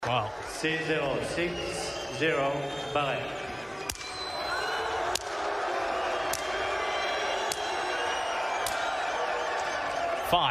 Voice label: tennis meltdown; ALEXANDER BUBLIK